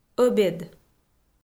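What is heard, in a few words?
"ӧбед", Komi, female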